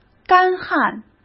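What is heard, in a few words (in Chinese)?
"干旱", Mandarin; chinese-flashcards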